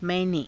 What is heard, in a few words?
"mai~ne", Hindi